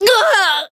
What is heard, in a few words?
hit, de; Cookie Run